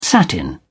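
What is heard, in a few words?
"satin", British English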